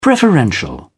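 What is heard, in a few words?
"preferential", English, British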